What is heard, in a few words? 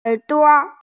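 "er duo", Mandarin Chinese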